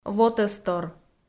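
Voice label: "вотӧстор", Komi, female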